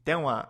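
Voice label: "denwa", Japanese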